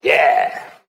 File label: "yeah", raspy